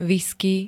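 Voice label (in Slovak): "whisky", slovenské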